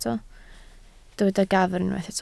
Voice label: "gafr", Welsh

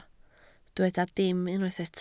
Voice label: "dim", Welsh

